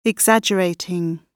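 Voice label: "exaggerating", British English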